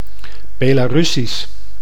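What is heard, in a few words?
"Belarussisch", Dutch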